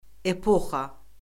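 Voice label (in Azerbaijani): "epoxa", Azerbaijani; Orfoqrafiya Lüğəti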